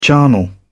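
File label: "charnel house", British English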